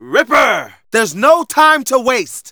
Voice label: Narration